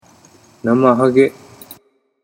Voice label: "namahage", Japanese